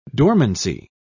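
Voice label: "dormancy", British English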